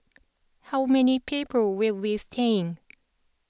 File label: jpn eng clerk, F